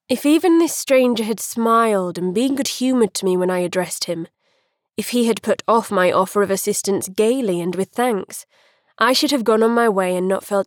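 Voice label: Classic Fiction female male dialogue Yorkshire R.P. Accents meet cute romance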